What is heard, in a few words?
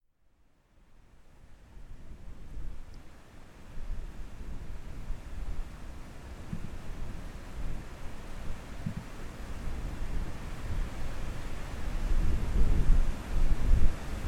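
erotic poetry urgency and desire stereo ocean sounds, female; F4A; The Moment After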